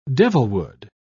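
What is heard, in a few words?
"devilwood", British English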